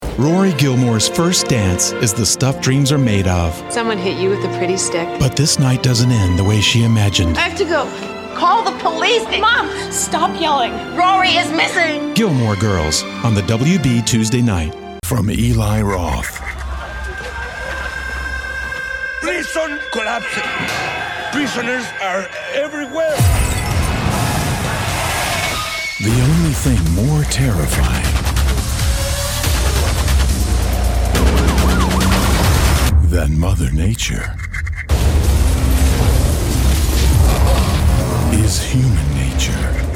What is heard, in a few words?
Promo